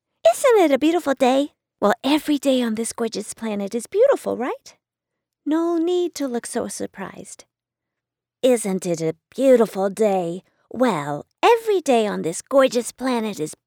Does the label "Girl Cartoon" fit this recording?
Yes